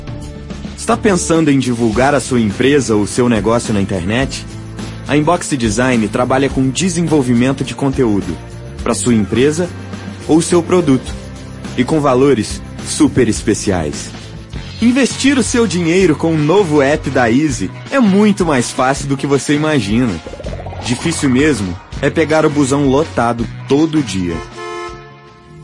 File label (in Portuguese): Demonstracao Locucao Publicitaria